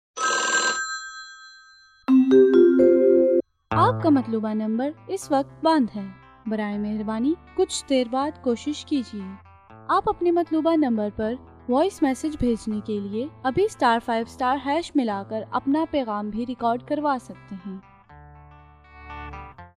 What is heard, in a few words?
Commercial sample